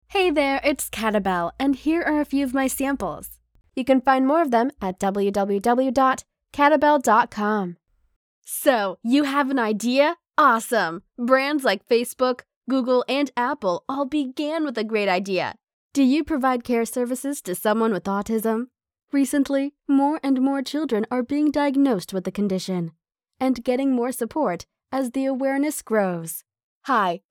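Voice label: Explainer Video, voice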